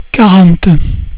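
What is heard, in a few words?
"quarante", French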